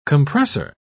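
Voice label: "compressor", English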